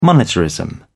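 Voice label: "monetarism", English, British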